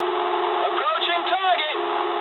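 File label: English near waypoint mood medium; War Thunder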